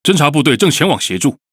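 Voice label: SPEECH PVE CONST ACTION INCOMING ALLY REINFORCES, Taiwan; World Of Warships; ZH TW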